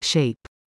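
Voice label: "shape", English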